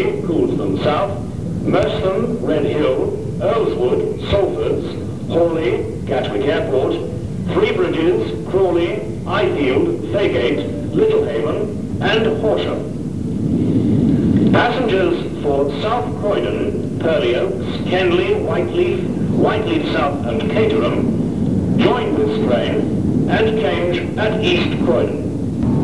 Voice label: Clapham Junction pre recorded platform announcement